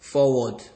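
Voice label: "forward", Nigerian Pidgin, male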